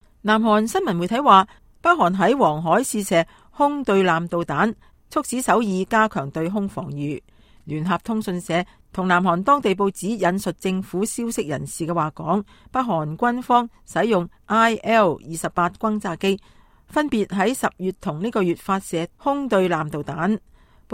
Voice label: Nokor Missile, cantonese

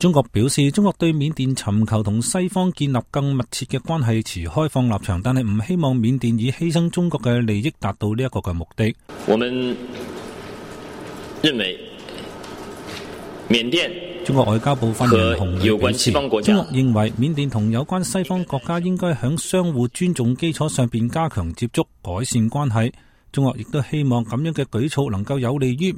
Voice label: China Burma, cantonese

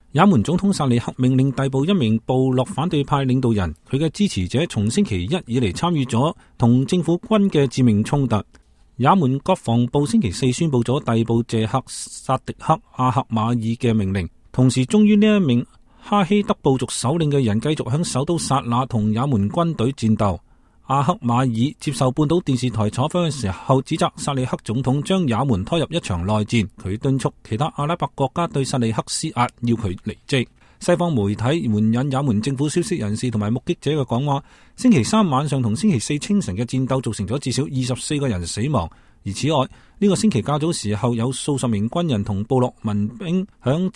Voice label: Cantonese Yemen

